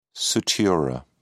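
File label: "sutura", British English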